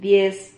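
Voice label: "diez", Spanish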